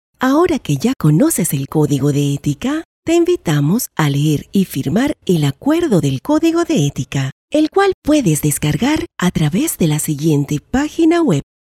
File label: E-Learning